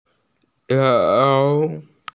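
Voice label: "cao3", Mandarin Chinese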